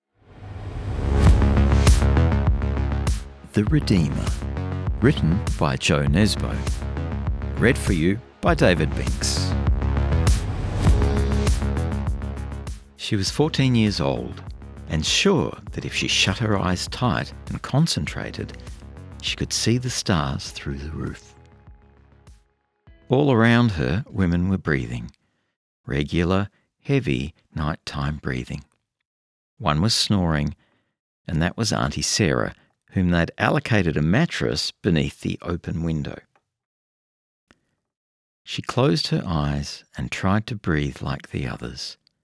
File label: Audiobook, Conversational Narrator, male; Audiobooks; The Redeemer